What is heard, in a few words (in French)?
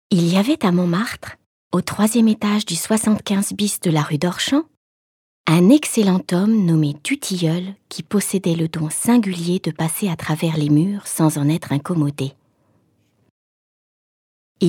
LIVRE AUDIO voix naturelle; LE PASSE MURAILLE de Marcel AYME